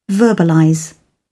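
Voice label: "verbalize", British English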